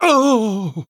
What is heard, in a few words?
Male Chess Piece Death